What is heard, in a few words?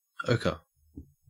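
"ochre", Australian English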